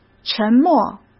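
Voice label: "沉默", Mandarin Chinese; chinese-flashcards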